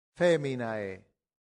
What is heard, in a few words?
"feminae", Latin; santillana